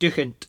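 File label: "doochint", Cromarty Fishertoun dialect; [DOOch-nt]; obstinate; Word; fishertoun dictionary; cromartymuseum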